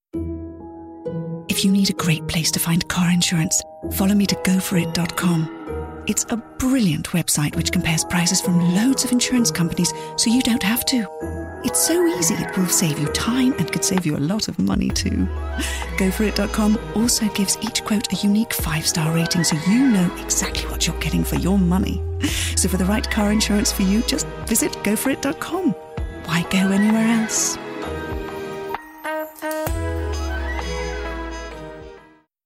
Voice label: English F Commercial, accent of denmark